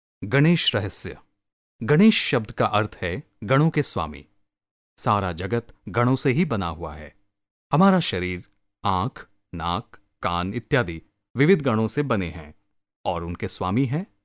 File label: Hindi Male